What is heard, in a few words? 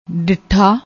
"ditha", Punjabi; ਡਿੱਠਾ